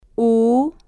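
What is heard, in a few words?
"u", spanish, female, slow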